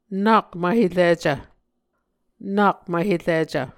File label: "nak mvhericv", Muscogee